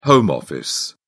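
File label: "home office", British English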